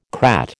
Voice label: "crat", American